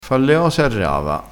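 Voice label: "a rava", Italian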